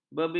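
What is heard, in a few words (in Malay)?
"bebibir", Malay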